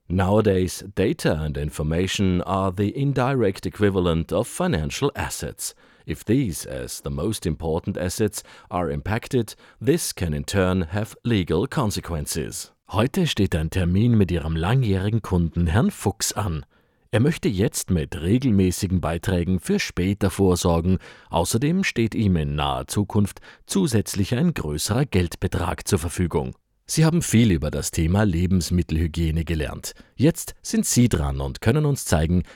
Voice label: E Learning EN DE